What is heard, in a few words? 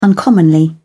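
"uncommonly", British English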